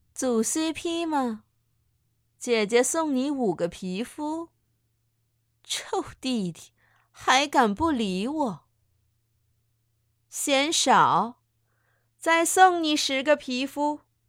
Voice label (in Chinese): 语音女